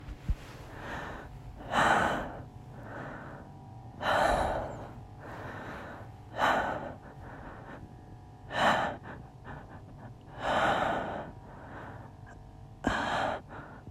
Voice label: Purring through a hard edge